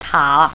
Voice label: tower, mandarin

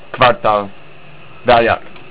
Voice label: "Square", Estonian

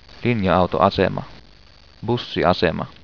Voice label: "Linja-autoasema, Bussiasema", Finnish

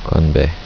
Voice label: "cheers", korean

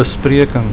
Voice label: "bespreking", Afrikaans

